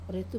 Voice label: "re tu" eyelash, Wamesa

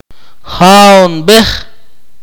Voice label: "we exclusive", Akateko

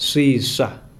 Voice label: "give", Kalanga

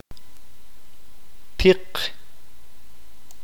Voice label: "caliente", qeqchi

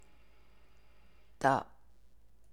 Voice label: navajo; questions; particle for yes-no questions

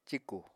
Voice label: "cíkù", Apatani

